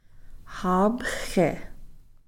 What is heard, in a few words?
"haapxi", Cow Creek; children